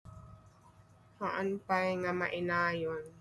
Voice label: Ilocano